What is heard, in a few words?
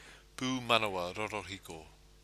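"pumanawa rorohiko", Māori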